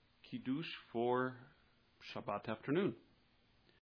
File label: kiddush announcement